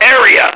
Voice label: "area", radio, male

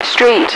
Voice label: "street", radio, female

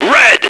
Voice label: "red", radio, male

